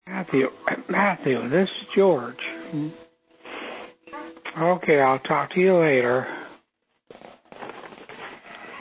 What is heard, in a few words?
Voicemail, answering machine incoming message tape